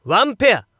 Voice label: Narration POKER 1 PAIR